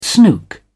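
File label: "snook", British English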